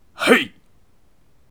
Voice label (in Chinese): 攻击, BattleCry; 大胡子